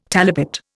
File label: "talipot", Indian